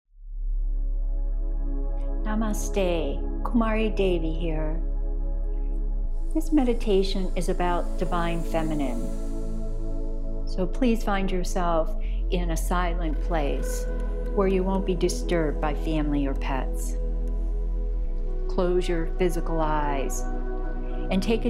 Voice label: Guided Practice, free meditations